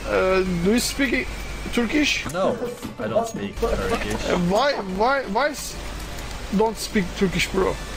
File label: speak turkish